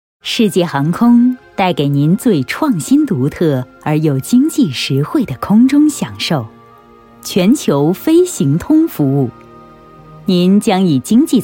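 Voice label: Mandarin, TV commercial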